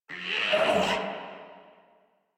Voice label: Struggle Crewmen; Dialog; Barks; Battle; Warframe; Corpus Crewmen; Crewman D